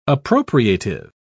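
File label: "appropriative", British English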